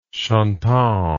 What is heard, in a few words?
"chantant", English